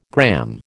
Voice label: "gramme", American